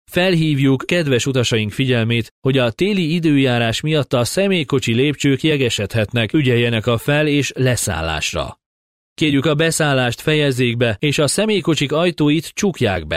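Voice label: Hungarian, male, narration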